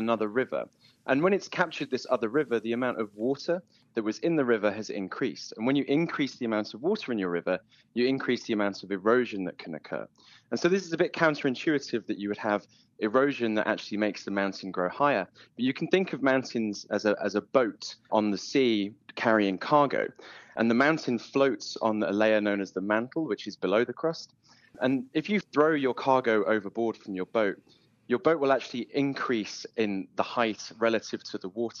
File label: Broadcast Interview Everest